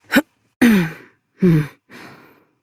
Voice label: Horse Dismount Adult; Duviri